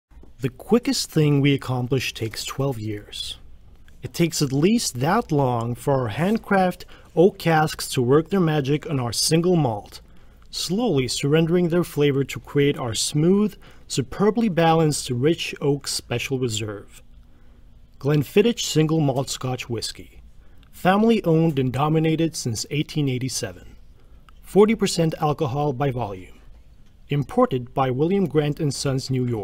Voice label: Voice Over Test 3 different styles

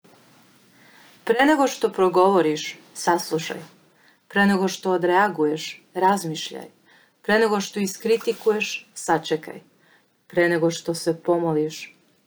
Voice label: Serbian

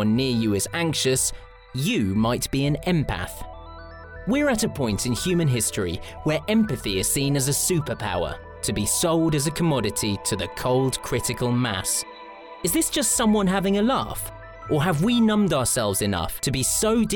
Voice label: NARRATION; NARRATION REEL

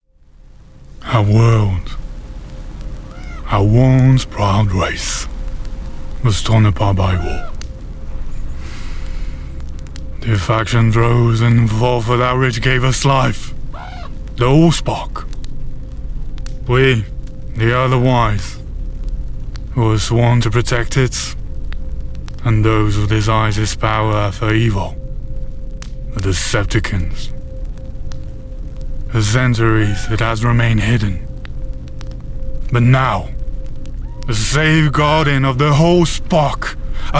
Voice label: Animation Video Game English

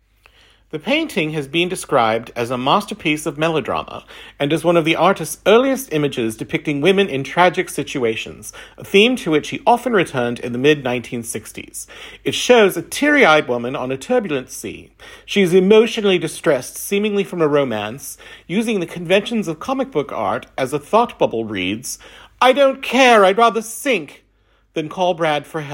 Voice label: British

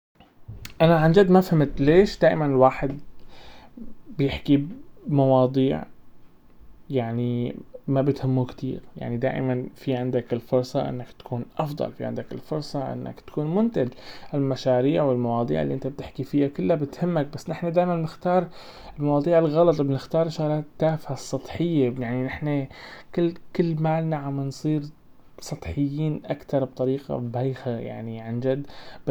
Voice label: Arabic monologue

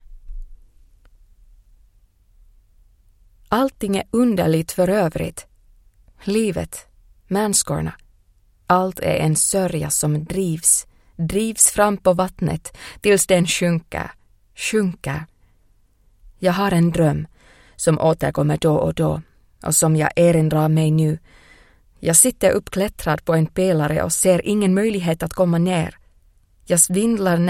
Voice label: swedish

